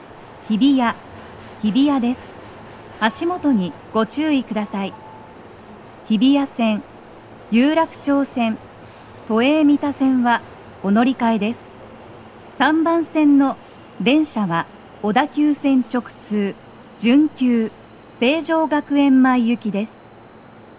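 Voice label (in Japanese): 到着放送 女声, 準急 成城学園前行; 放送は「準急 成城学園前行」です。; tokyometro chiyodaline hibiya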